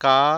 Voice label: "ka", consonants